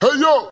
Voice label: "hey yo", voice; ooh ahh